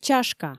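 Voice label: "чашка" Komi, female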